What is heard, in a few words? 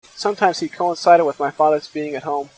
Enhanced Speech White Noise